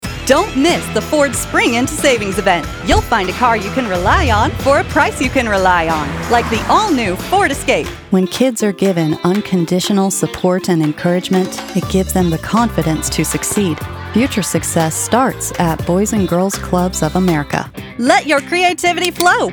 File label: Commercial